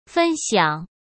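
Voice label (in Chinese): "分享", Mandarin Chinese; chinese-flashcards; hsk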